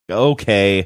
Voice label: pseudo dejected okay